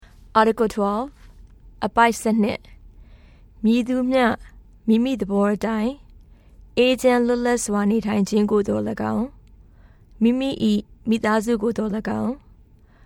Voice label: Burmese